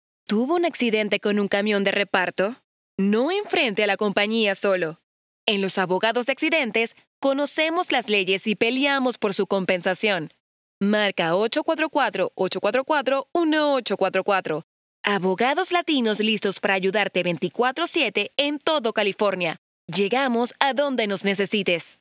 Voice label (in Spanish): Publicidad llamada telefonica; Abogados